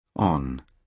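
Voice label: "on", British English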